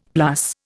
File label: "plus", Indian; Dictionary